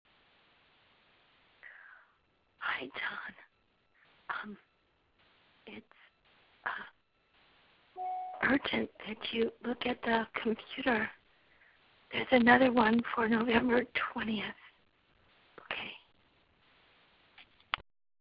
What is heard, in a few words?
voice message; Voicemail